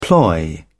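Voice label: "ploy", British English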